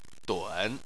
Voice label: "dun3", Chinese

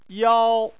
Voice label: "yao1", Chinese

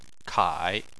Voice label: "kai3", Chinese

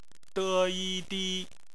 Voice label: "di", Chinese; phonetics; bpmf

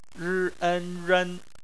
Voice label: "ren", Chinese phonetics

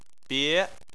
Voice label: "bie2", chinese

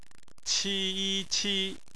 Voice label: "qi", Chinese phonetics

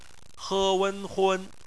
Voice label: "hun", Chinese; phonetics; bpmf

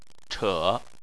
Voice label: "che3", chinese

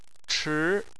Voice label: "chi2", chinese

